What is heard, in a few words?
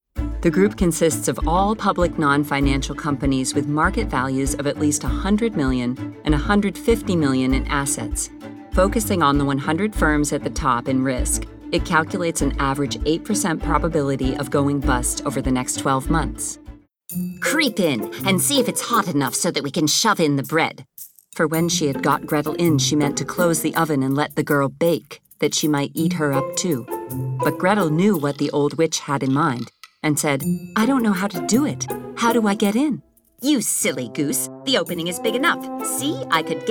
NARR